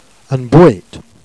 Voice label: "boete", Jèrriais